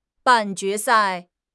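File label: "ban4 jue2 sai4", Chinese; 汉语口语新时代-初级下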